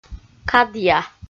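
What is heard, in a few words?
"кадъя" Komi, female; kpv audio dict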